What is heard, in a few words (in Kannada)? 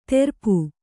"ತೆರಪು", Kannada